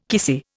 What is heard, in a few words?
"kissy", Indian